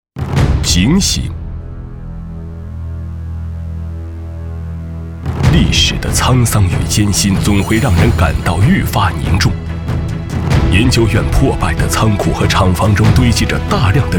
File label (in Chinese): 男 党政警示片; 扫黑除恶警示片